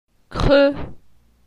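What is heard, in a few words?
"Cre", French; phonetique; la syllabe e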